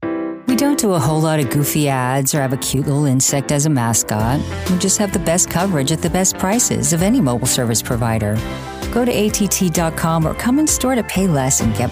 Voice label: english american

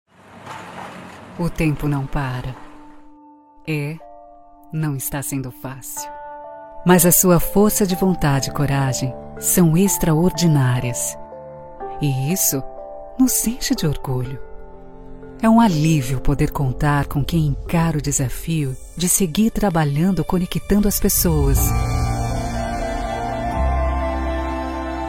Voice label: portuguese brazilian corporate videos

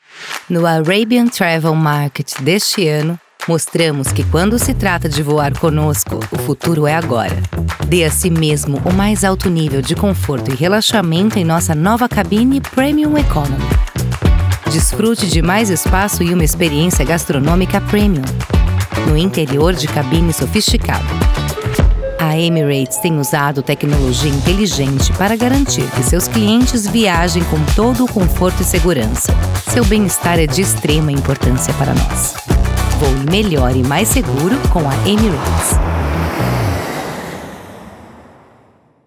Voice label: Portuguese brazilian others

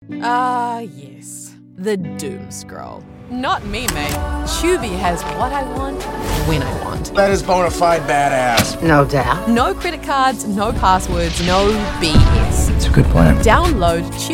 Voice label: english australian tv shows

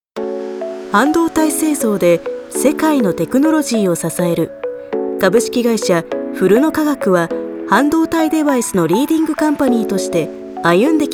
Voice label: japanese corporate videos

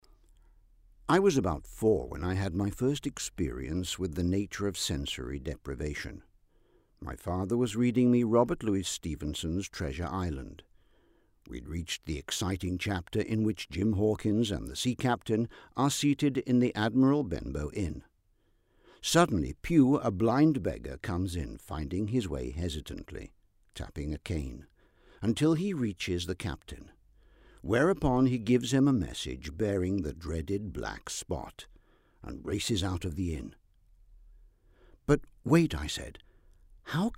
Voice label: english british narration

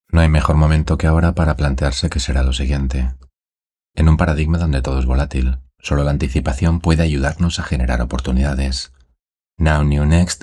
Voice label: spanish others

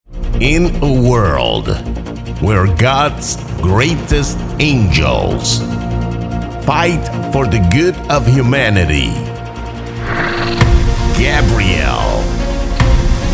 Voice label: english movie trailers

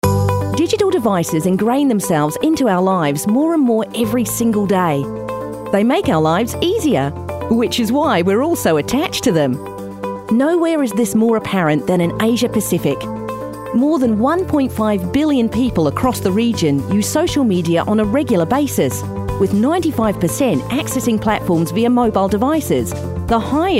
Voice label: english australian corporate

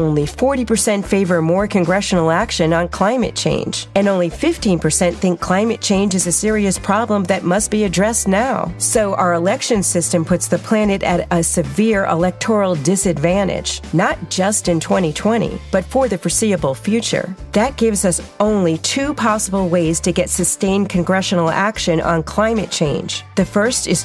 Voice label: english american political ads

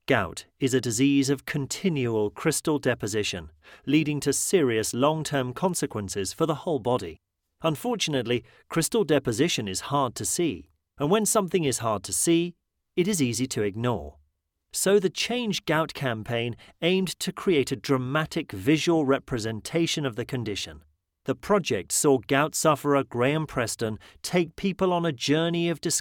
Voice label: english british medical narration

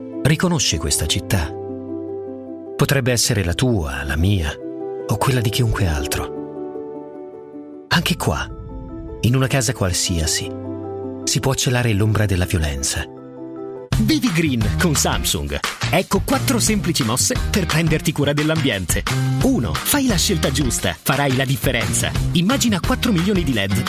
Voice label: italian corporate videos

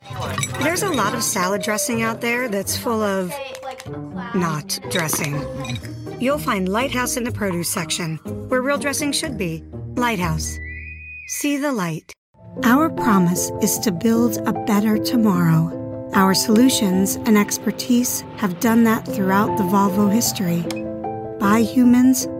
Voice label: english american commercial

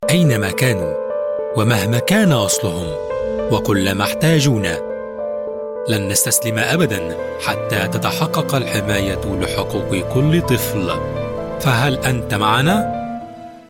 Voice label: arabic tv shows

